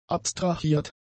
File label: "abstrahiert", german; adjectives